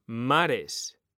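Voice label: "mares", Spanish, male; mekanta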